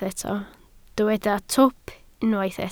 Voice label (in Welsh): "twp", Welsh